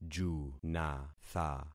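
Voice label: "junatha", concatenated syllables; Affixing experiment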